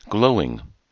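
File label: "glowing", American English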